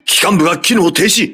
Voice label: voice message engine destroyed, crew ships japanese